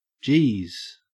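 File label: "jeez", Australian English; pronunciations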